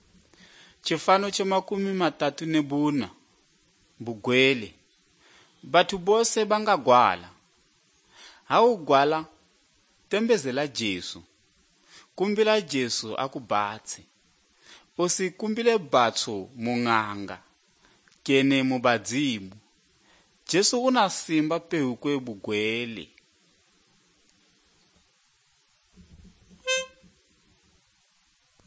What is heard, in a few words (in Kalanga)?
good news kalanga lilima, drama